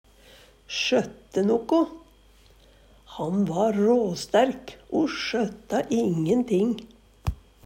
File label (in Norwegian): "sjotte noko", Numedalsmål; Ho Berjit hadde rykte' (stellet) på både dyr, hus o heim, o ho sjøtta alt på beste måte.